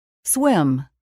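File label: "swim", English word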